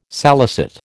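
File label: "salicet", American English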